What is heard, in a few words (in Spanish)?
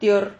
"tior", Silabas